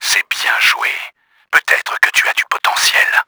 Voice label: happy, french; KRAMER; Battle Engine Aquila